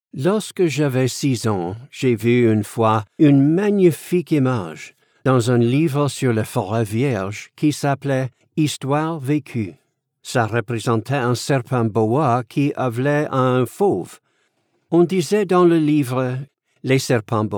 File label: French